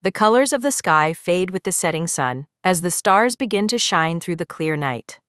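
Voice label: American English; en-US; iOS Sounds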